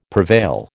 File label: "prevail", American